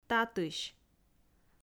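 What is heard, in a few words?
"татысь", Komi, female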